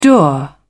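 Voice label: "dour", British English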